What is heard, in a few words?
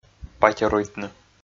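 "патеруйтны", Komi, male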